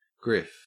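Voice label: "griff", Australian English; pronunciations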